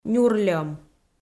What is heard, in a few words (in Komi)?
"нюрльӧм", Komi